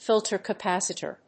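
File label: "filter capacitor", English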